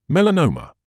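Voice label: "melanoma", British English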